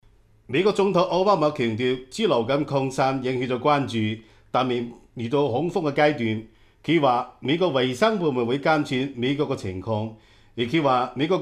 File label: Cantonese